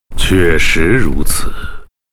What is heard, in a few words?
yes, zh; Hero farseer